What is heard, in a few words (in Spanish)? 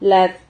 "lad", Silabas